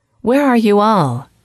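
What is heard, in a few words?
where are you all, female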